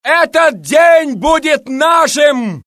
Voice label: Russian; mohaa; dialogue